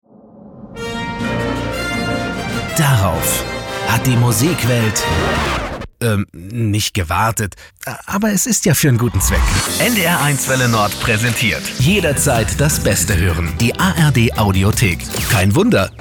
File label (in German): Station Voice fröhlich frisch; NDR